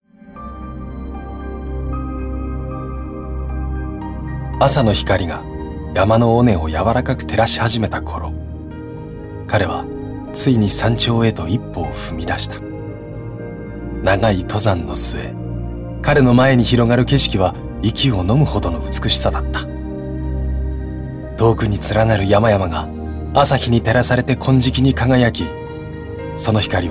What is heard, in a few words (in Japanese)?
モノローグ 静か